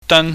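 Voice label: "dan", Cantonese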